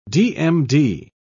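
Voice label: "dmd", British English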